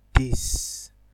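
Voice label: "dis", Nigerian Pidgin, male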